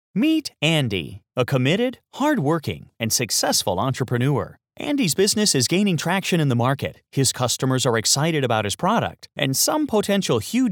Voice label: eLearning